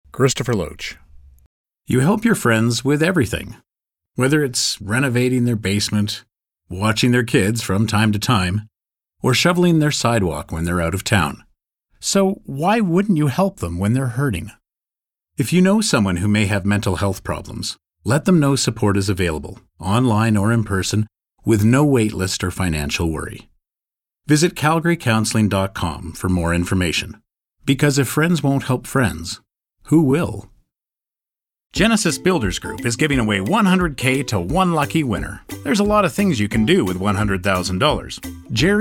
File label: Commercial